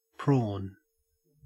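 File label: "prawn", Australian English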